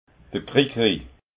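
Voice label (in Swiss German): "krikri", Alsatian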